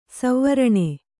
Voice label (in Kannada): "ಸುವರ್ಣ", Kannada